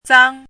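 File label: "zang1", Mandarin pinyin syllable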